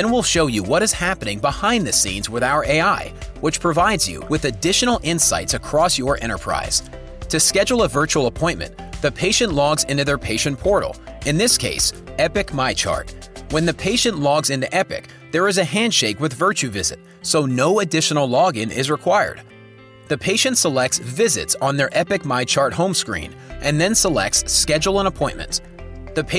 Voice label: Telehealth eLearning